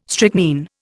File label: "strychnine", Indian